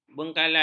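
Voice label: "bengkalai", Malay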